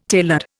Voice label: "tailored", Indian